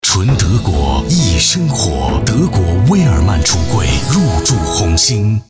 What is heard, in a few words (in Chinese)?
男 广告 磁性 品质 大气 成熟; 威尔曼橱柜 易生活